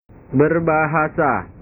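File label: "berbahasa", Indonesian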